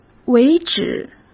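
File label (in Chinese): "为止", Mandarin Chinese; hsk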